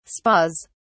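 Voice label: "spas", British English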